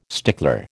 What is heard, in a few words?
"stickler", American